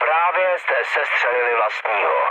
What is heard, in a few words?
player killed ally, speech cze, mood low